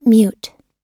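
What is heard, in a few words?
"mute", American English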